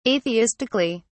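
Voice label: "atheistically", English, uk British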